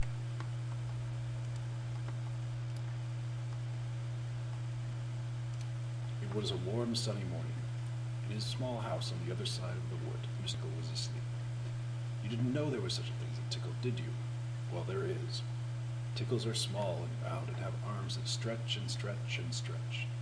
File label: Cleveland Ohio USA male